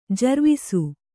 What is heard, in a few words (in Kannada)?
"ಜರ್ವಿಸು", Kannada